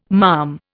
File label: "malm", Indian